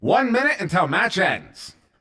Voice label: 1 Min Left, CTF Announcer